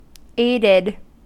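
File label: "aided" US English; pronunciations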